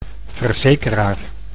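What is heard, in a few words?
"verzekeraar", Dutch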